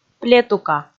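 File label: "плетука", Komi, female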